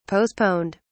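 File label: "postponed", British English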